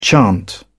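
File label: "chant", British English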